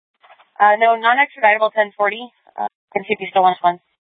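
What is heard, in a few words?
police dispatch, Scottsdale PD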